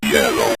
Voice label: "yellow", synth voice; Kenney's Sound Pack